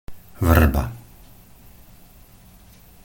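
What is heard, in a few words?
"vŕba", Slovak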